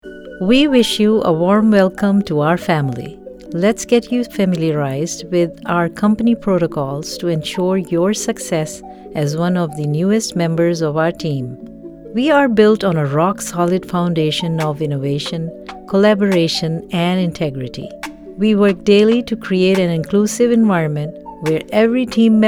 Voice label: corporate narration English

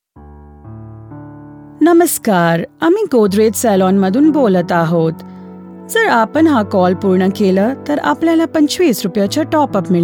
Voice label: IVR Marathi

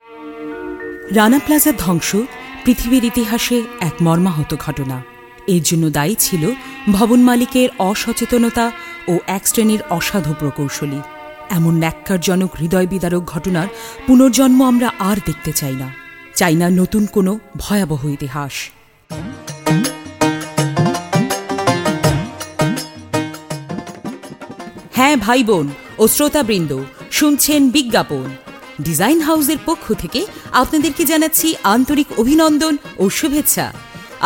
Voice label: promo bangla

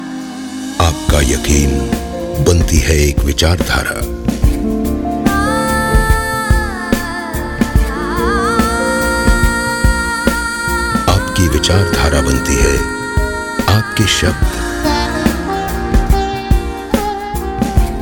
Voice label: Hindi; bajaj

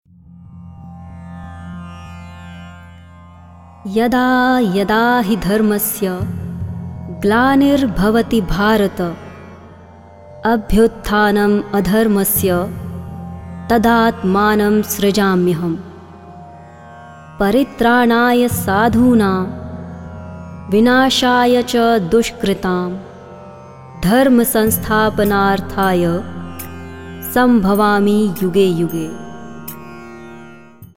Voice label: shlokas sanskrit

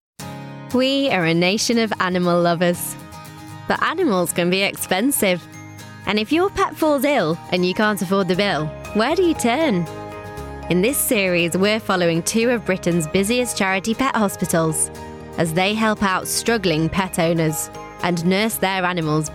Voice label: narration

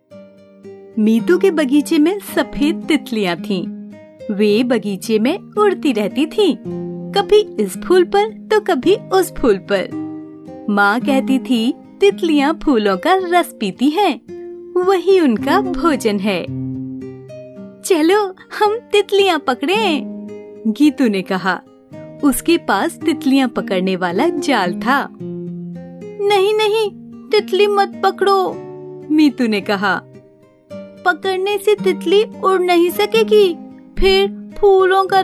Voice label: story hindi

